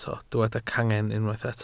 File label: "cangen", Welsh